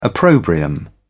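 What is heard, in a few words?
"opprobrium", British English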